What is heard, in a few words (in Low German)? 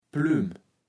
"Plümm", Platt